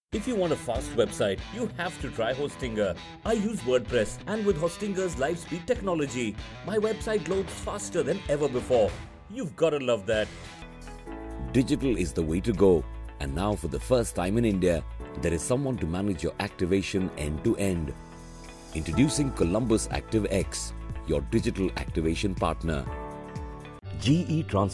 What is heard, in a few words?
english indian commercial